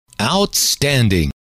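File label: "outstanding", male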